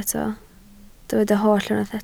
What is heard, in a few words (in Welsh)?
"holl", Welsh